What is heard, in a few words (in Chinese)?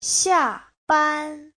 "xia4ban1", Chinese; 下班 : tan ca